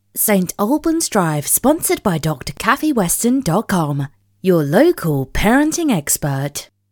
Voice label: drive sponsor dry vo